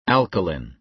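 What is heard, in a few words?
"alkaline", American English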